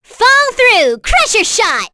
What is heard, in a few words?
Vox Skill, en; kingsraid; voices heroes